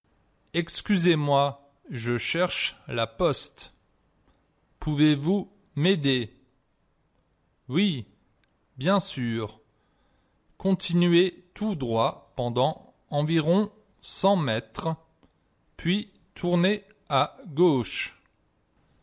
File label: Conversation, French